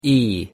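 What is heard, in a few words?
"e", English; pronunciation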